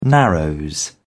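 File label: "narrows", British English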